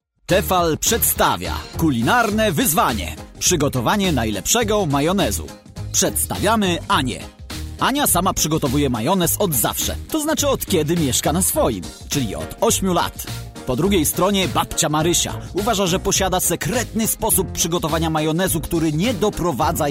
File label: male polish voice over talent upbeat